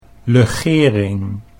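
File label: "legering", Dutch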